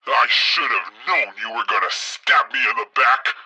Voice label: tutorial chopper; midwave; Blind Drive